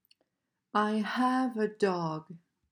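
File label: "I have a dog", English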